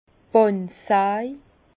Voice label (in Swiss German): "bandsaj", Bas Rhin; vin; scie à ruban